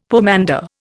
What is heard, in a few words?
"pomander", Indian; Dictionary